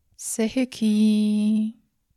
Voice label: Mantra